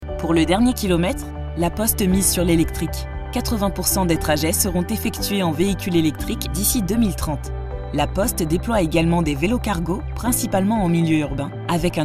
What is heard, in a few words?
french corporate videos, voice actors